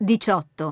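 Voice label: "eighteen", English; iOS 4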